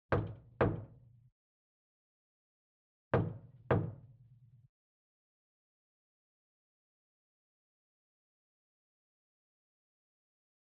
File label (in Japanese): ASMR; 隣の部屋で、父親にフェラチオするお姉ちゃん